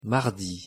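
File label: "mardi", French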